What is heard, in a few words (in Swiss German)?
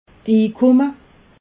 "inkumme", alsace